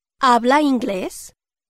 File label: speak english spanish